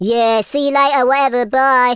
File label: byebye, Teenage Angst; speech